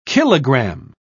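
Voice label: "kilogramme", British English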